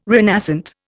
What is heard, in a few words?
"renascent", Indian